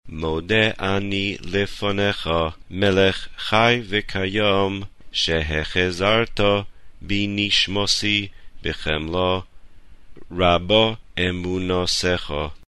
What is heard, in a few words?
ashkenazis